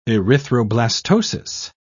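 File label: "erythroblastosis", British English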